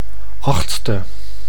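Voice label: "achtstse", Dutch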